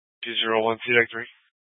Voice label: FD Dpts VHF 156.240 Patch